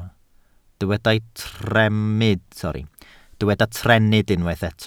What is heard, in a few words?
"trennydd", Welsh